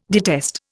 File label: "detest", Indian